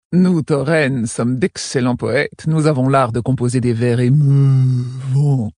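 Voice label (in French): Female Blague, voix françaises; Tauren Haut Roc; Emeuuhvant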